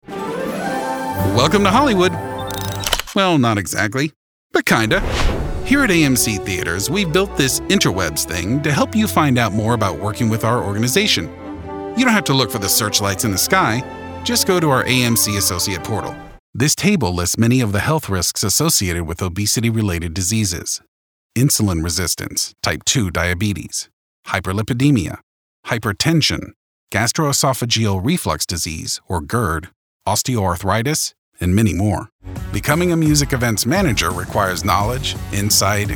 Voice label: E-Learning